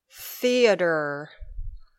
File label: "theater", English